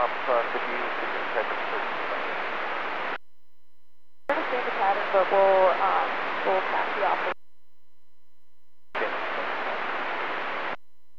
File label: Aviation 122.7; KSTE